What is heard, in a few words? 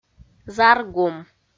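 "заргум", Komi-Zyrian, female; audio dict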